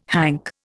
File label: "hank", Indian